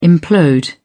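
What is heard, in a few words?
"implode", British English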